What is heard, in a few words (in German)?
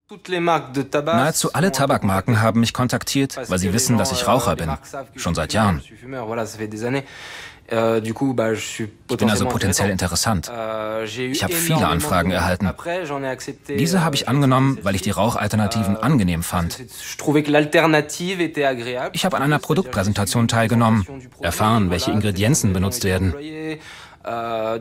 Voice Over Nikotin